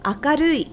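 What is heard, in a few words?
"akarui", Japanese; kanji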